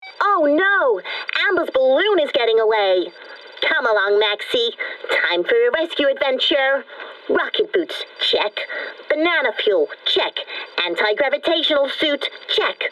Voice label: animation